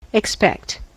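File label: "expect", US English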